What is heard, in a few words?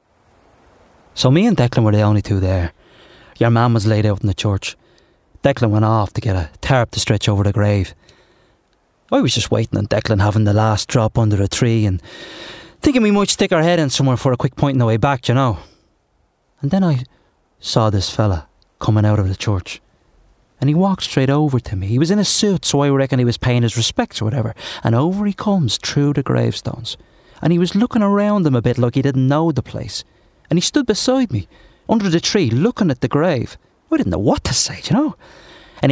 Drama Accent